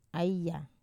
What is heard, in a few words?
"aya", bird, wamesa